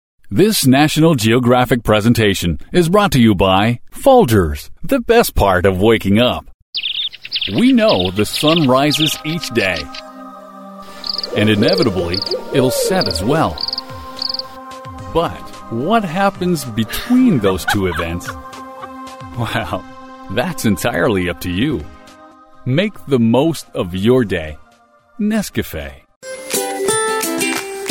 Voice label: english canadian promos